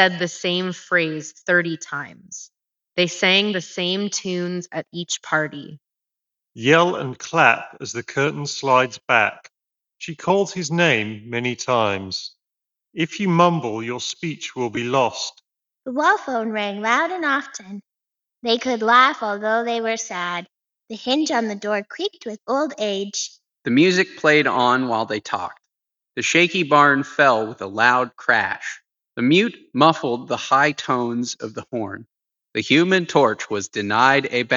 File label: Sony INZONE H9 II reverb